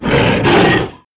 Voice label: pain; yeti

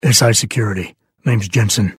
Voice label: battlecry

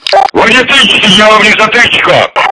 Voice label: hurt; tf; polkovnik

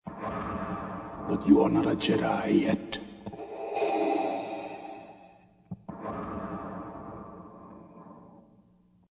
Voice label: but you are not a jedi yet breathing; Dark Vader

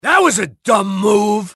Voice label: jeers; tf; max payne

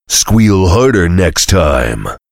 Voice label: heavy taunts; tf; duke

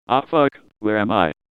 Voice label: taunt head pain; pyro

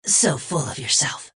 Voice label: jeers; tf; redsun; adachi; spy

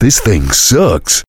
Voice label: heavy negative vocalization; tf; duke

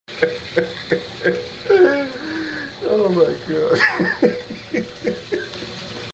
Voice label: hehe omg

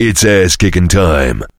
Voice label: heavy battlecry; tf; duke

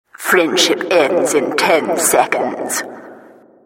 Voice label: announcer mission begins

